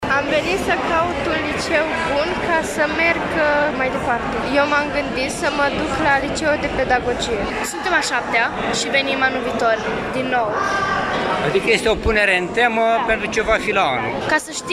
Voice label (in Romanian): vox elevi